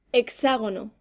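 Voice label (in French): "hexagone", French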